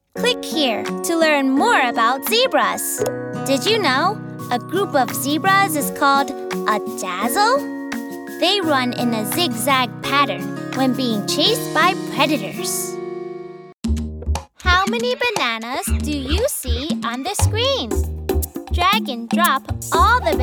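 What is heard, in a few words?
kid VO only and song toys and games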